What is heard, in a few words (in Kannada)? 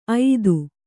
"ಐದು", Kannada